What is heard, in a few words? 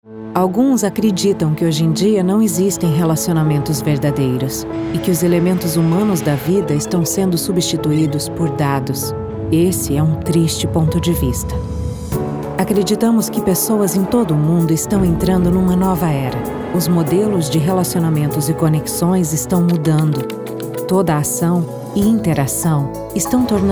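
Brazilian Portuguese narrative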